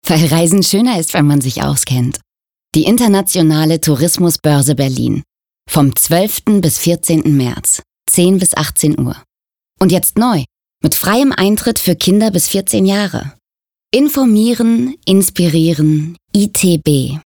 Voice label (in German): werbung normal; itb